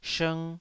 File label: "shng2", taiwanese